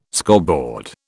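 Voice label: "scoreboard", British English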